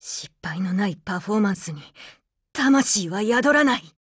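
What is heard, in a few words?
RETREAT, Japanese; APC556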